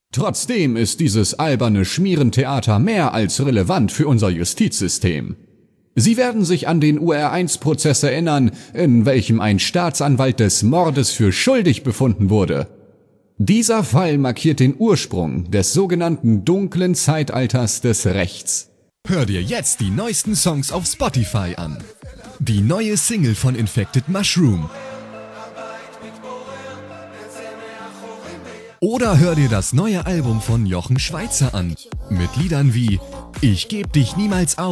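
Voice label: German, male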